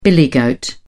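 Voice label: "billy goat", English, uk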